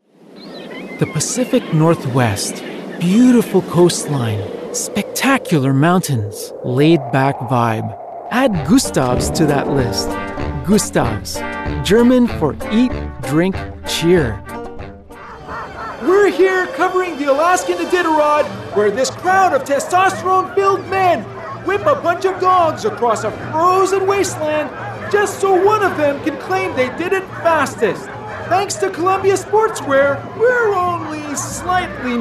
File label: English Canadian commercial